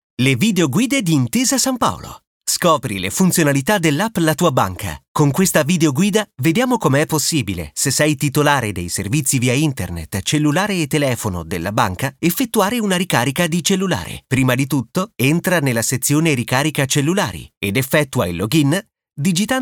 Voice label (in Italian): Istituzionale